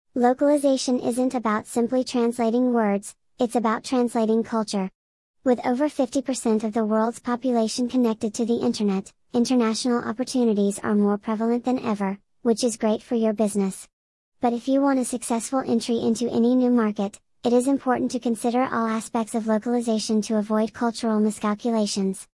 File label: english usa female